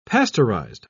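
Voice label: "pasteurized", British English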